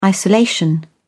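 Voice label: "isolation", British English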